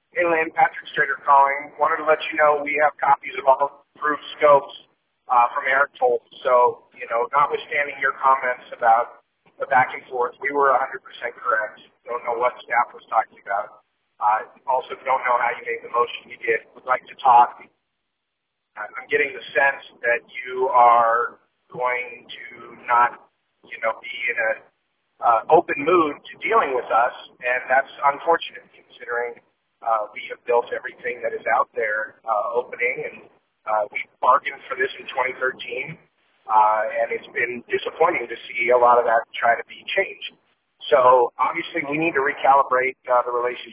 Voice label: Voicemail